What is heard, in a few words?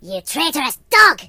Traitor, voices, Pirate; hedgewars